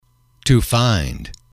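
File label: "to find", English, male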